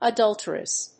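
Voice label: "adulterous", English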